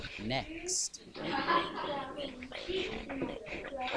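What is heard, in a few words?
"ring", spoken word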